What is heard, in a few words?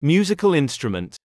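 "musical instrument", English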